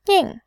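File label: "nin", Mandarin, tone 4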